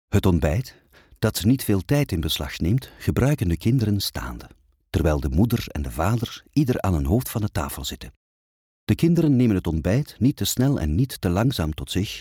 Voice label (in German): flaemisch berlin